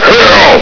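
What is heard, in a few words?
"hell!", male; hl2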